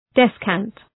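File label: "descant", English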